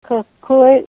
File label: "whichever", Ninilchik Russian